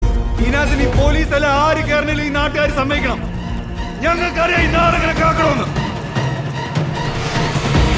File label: Trailer Dialogue; Fahadh Faasil; Malik